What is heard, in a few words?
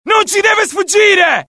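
alert, Italian; mohaa; dialogue; sighted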